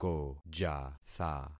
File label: "kojatha", concat syllables; Affixing experiment